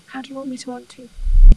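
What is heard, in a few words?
voice acting, es, female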